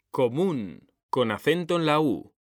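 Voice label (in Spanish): "COMÚN" Spanish, male; mekanta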